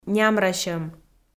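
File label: "нямрасьӧм", Komi, female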